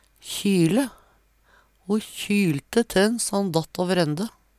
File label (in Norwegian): kjyle, Numedalsmål; Ho kjylte te`n so han datt åver ende.